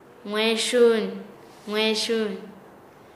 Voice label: Nasa Yuwe; insultar; paez